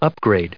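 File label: "upgrade", US English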